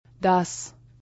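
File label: "das", German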